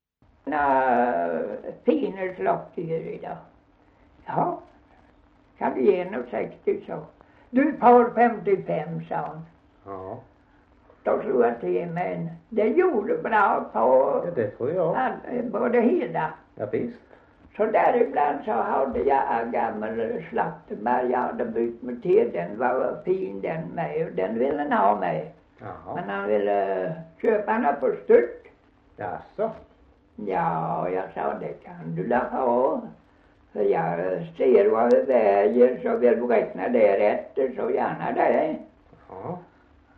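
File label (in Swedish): Gunnarp Halland, dialekter; Folkminnen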